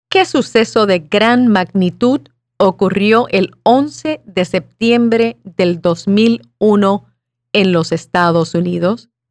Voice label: Spanish